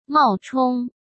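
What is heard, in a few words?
"冒充" Mandarin Chinese; hsk; chinese-flashcards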